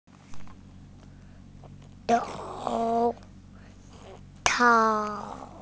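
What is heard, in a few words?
"gloves", Mandarin Chinese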